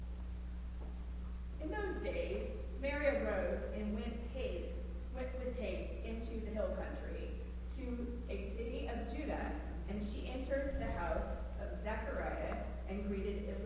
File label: Narration